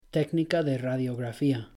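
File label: "técnica de radiografía", Spanish; pronouncing c g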